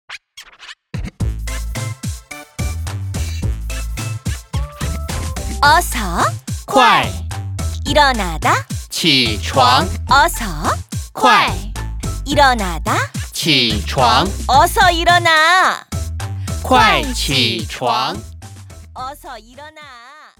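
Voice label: word Chinese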